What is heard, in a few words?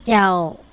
"cao Boro" dog, andamanese